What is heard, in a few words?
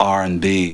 LoFi Words, LoFi Vocals